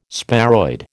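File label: "sparoid", American English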